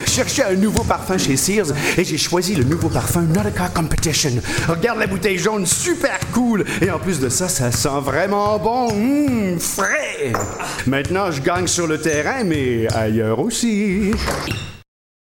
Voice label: male french canadian; nautica competition